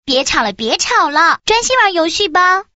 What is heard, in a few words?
quick chat voice, girl